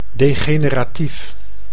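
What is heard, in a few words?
"degeneratief", Dutch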